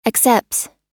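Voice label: "accepts", American English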